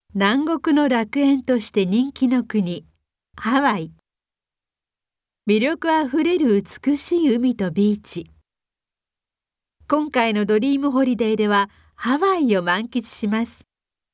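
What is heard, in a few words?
narration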